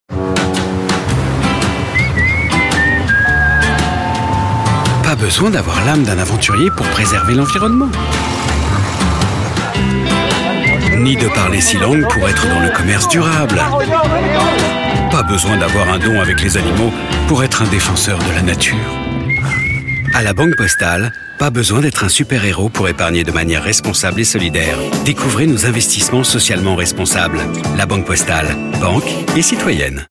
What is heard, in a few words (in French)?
Pub TV; La Banque Postale; Pas Besoin detre un super héros